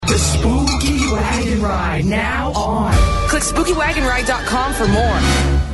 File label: spooky wagon ride commercial